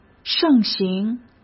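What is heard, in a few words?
"盛行", Mandarin Chinese; hsk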